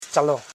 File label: cha.lon, koro, house parts